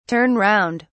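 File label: "turn round", British English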